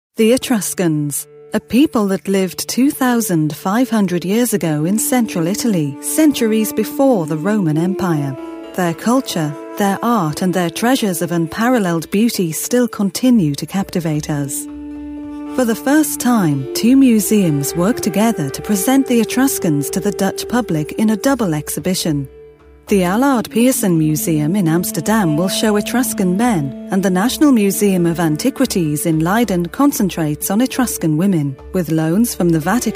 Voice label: uk docu, female, english-uk